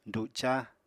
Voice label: "duca", Apatani

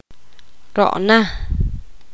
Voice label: poqomam; hoy

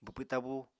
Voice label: "bwpw tabú", Apatani

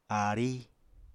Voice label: "aarí", apatani

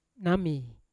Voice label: "nami" which, Yawa

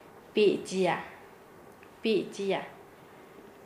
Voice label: "acompanar", Nasa Yuwe; paez

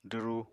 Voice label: "dwru", Apatani

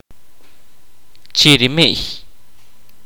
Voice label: kaqchikel; a type of oboe

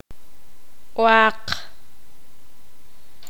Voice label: poqomam; hueso

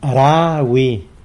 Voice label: "ra wi", tlacolula; all guavas

